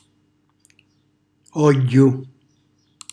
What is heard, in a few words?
"ògghju", Calabrese; olio; cucina calabrese; Glossario